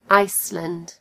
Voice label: "iceland", en GB; gcompris

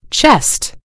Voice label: "chest", en GB; gcompris

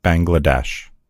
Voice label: "bangladesh", en_US; gcompris; geography

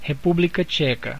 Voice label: "czechia", pt_BR; gcompris; geography

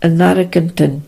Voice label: "argentina", en; gcompris-data; geography

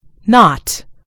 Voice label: "knot", en GB; gcompris

